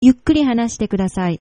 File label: slowly, japanese; ゆっくり話してください; yukkuri hanashite kudasai; Please speak more slowly